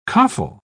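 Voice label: "coffle", British English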